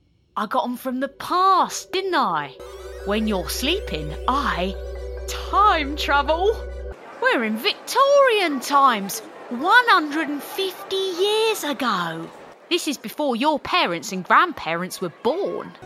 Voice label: Character London Silly